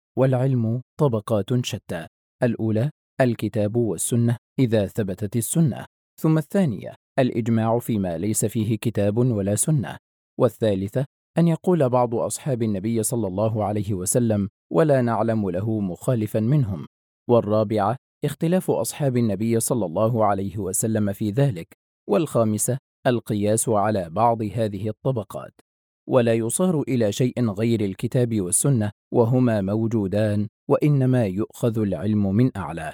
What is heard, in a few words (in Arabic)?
عينة أصوات عربية